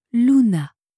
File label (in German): "louna", franzoesische maedchennamen